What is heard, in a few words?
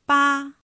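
"bā", chino mandarin; pinyin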